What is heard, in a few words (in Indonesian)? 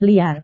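"liar", Indonesian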